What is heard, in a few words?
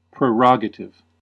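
"prerogative", US English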